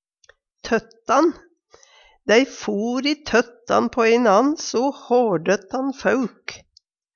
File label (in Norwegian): tott, Numedalsmål; Substantiv hankjønn; Dei for i tøttan på i'nan so hårdøttan fauk.